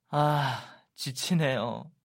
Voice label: Tired; Yoosung